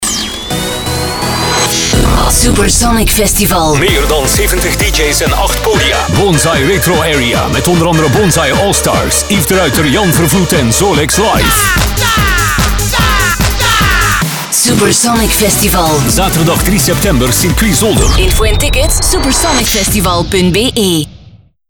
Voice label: party commercial; supersonic festival